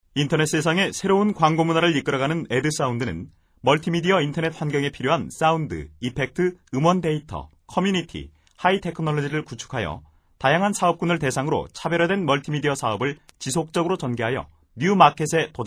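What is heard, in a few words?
Korean male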